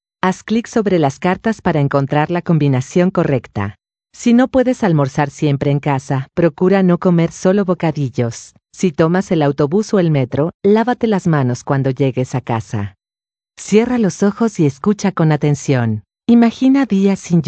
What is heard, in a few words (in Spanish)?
Spanish South America, F